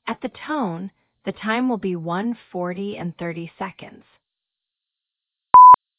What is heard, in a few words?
telephone time